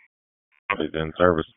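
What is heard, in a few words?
FIRE Operations, p25; FIRE OPS 2; wake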